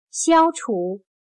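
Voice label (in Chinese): "消除", Chinese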